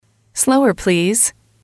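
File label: "slower please", English, female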